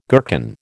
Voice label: "gherkin", American

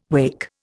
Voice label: "wacke", Indian

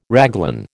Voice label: "raglan", American

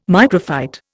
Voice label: "microphyte", Indian

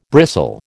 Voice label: "bristle", American

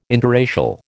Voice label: "interracial", American

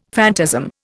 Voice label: "phantasm", Indian; Dictionary

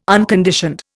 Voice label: "unconditioned", Indian

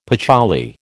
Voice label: "patchouli", American

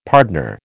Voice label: "pardner", American

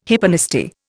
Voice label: "hyponasty", Indian

